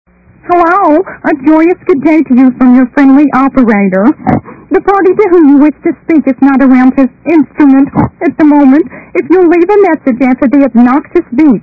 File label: telephone operator; RadioShack; Office-Home